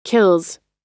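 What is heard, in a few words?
"kills", US English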